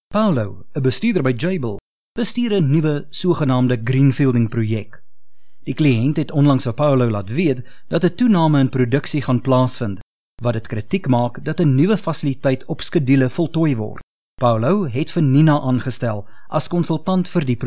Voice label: Afrikaans Native